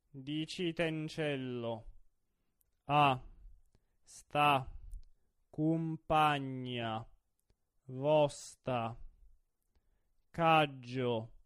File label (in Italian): monotono; Dicitencello vuje